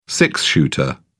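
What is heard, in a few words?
"six-shooter", British English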